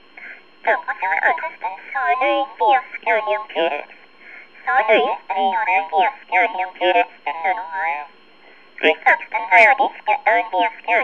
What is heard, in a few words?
Split Band Voice Scrambling